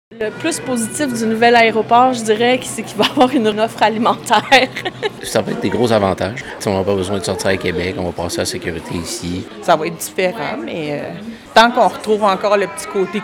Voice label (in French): VOX POP; TRANSPORT; DERNIER JOUR TERMINAL PASCAN; dernier appel pour les passagers du terminal de Pascan